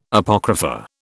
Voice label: "Apocrypha", British